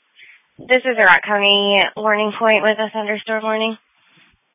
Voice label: Police Tactical Encrypted